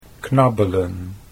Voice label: "knabbelen", Dutch; 'to nibble'

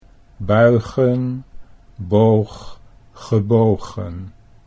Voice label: buigen boog gebogen, Dutch

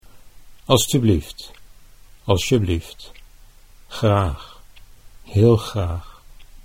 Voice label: "alstublieft alsjeblieft graag", Dutch

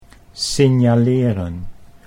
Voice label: "signaleren", Dutch

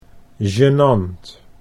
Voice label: "genant", Dutch

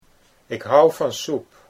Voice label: ik hou van soep, Dutch

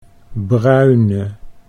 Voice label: "bruine", Dutch

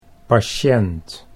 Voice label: "patiënt", Dutch

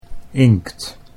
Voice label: "inkt", Dutch

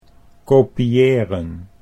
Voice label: "kopieren", Dutch

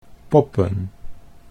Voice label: "poppen", Dutch

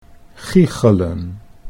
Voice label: "giechelen", Dutch

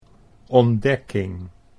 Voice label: "ontdekking", Dutch

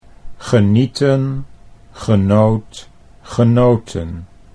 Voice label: "genieten genoot genoten", Dutch

